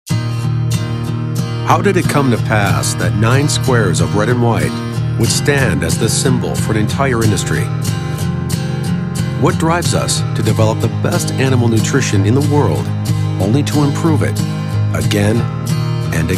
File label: narration